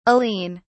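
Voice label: "aline", British English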